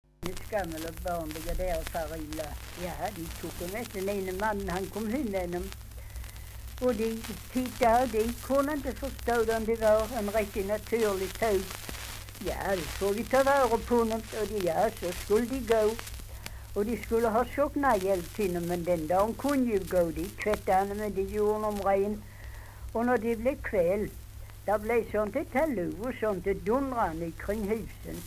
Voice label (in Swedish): Svenska sagor, dialekter; Folkminnen